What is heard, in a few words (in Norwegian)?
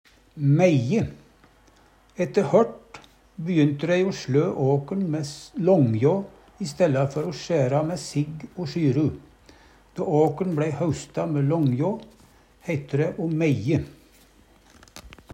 meie, Numedalsmål